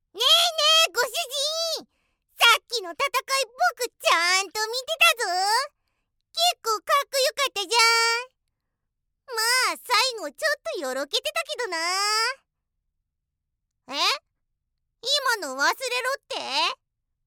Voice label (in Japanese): 人外